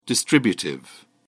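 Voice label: "distributive", British English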